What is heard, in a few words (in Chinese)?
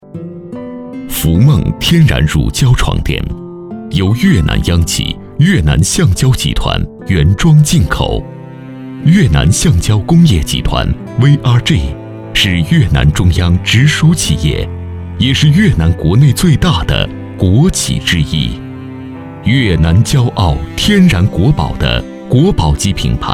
男 宣传片 天然乳胶垫; 拂梦天然乳胶垫